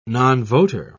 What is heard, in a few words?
"nonvoter", en uk